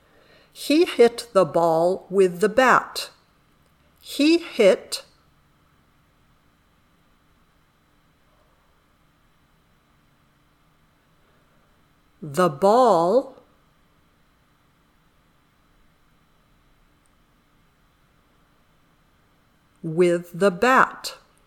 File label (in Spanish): Dictado